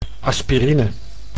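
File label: "aspirine", Dutch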